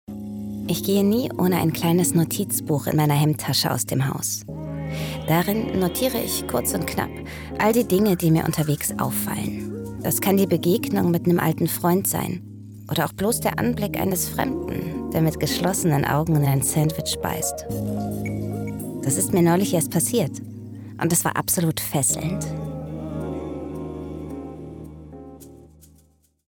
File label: Notizbuch, markant, Jung (18-30), German, Narrative, Tale (Erzählung), Audio Drama (Hörspiel); Köln; Germany; Mood